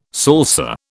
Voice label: "salsa", British

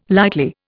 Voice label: "lightly", Indian

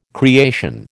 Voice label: "creation", American

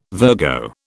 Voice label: "Virgo", British

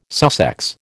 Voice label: "Sussex", American

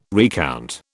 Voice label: "recount", British

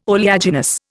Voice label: "oleaginous", Indian

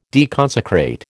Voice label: "deconsecrate", American

